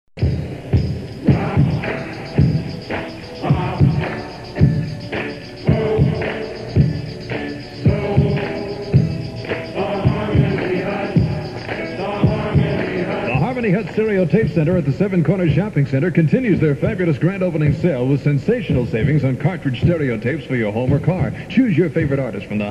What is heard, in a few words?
spot; harmony hut